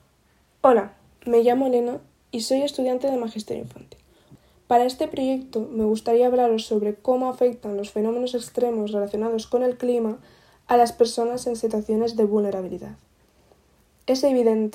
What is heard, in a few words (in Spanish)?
Narracion; cartografias textiles